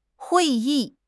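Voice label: "hui4 yi4", Chinese; HSK; HSK3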